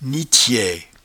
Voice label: "nitchaie", patois jura